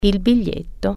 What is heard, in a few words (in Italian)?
"ticket", Italian